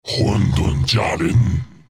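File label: spawn, Chinese; Chaknight; Dota 2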